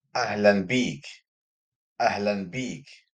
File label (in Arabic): "أهلاً بيك", Arabic; welcome to you (masc.); introductory greetings